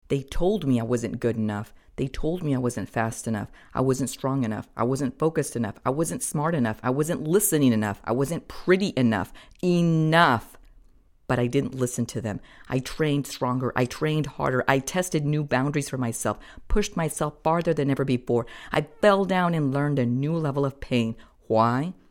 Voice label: English passionate